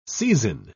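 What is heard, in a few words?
"seizin", British English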